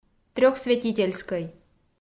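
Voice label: "трёхсвятительскӧй", Komi, female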